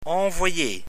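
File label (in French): "envoyer", French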